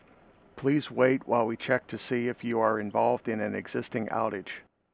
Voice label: Voice Existing Outage Lookup Message